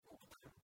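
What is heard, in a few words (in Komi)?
"пуктам", Komi, female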